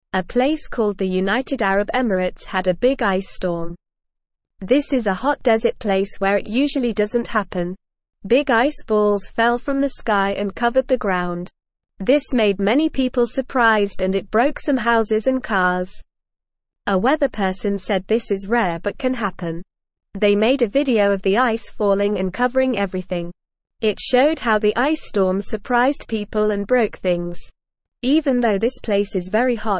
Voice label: English Beginner NORMAL Reading; Ice Storm in United Arab Emirates A Surprising Weather Even